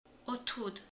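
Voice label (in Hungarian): "tej", Hungarian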